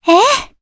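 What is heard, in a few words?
voice, Japanese; Cassandra; kingsraid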